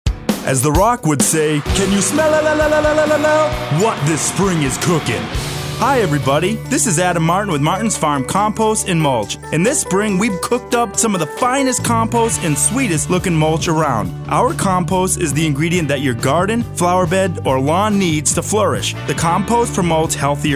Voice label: Advert; Martins Farm; Spring